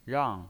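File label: "rang", Mandarin Chinese, fourth tone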